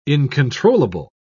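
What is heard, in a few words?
"incontrollable", English, British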